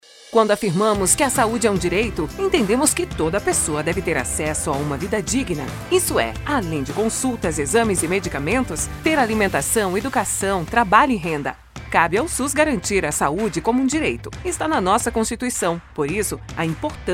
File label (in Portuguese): Spot